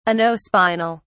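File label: "anospinal", British English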